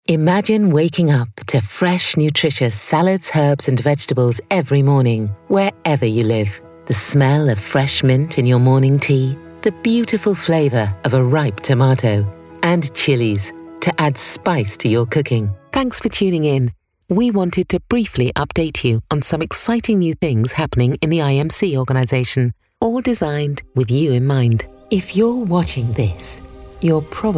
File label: corporate british voiceover